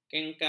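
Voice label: "kengkang", Malay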